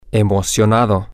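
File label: excited, spanish, male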